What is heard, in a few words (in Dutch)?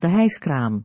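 "de hijskraam", Dutch; Natuur samenvatting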